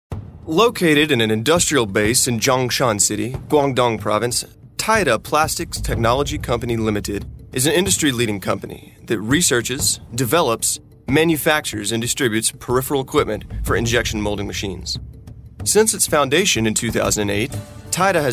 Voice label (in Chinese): 美式男声; 外语